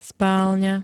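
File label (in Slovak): "spálňa", Slovak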